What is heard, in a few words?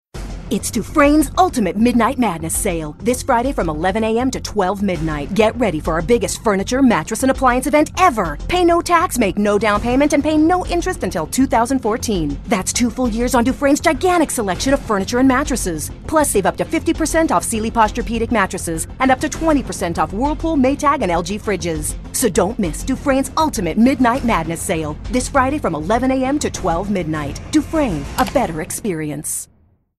Hard Sell